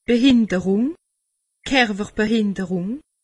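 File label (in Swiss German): "behinderung", Alsatian; medecine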